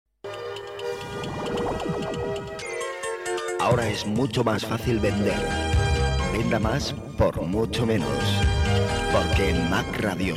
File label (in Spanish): anunciese 2 voces